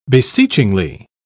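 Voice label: "beseechingly", British English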